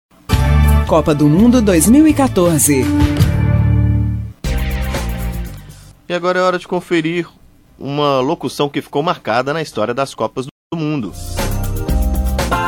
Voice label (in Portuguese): LOCUTOR INGLES NARRA GOL; GOL DE GEOFF HURST NA COPA DE 1966; jogo da Inglaterra contra Alemanha no Mundial de 1966 na Suíça